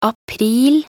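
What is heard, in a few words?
"april", Norwegian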